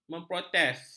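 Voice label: "memprotes", Malay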